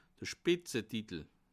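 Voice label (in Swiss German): "spitzetitel", Bas Rhin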